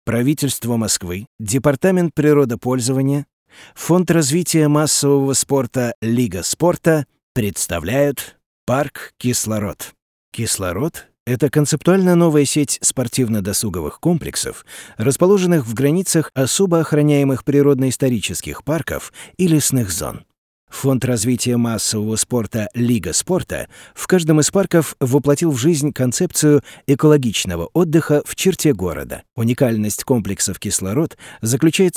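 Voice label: zg rasskaz prez Rus, Russian story presentation narration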